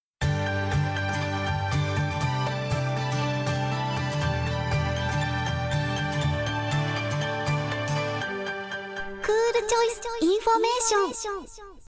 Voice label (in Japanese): ボイスサンプル タイトルコール